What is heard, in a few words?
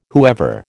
"whoever", American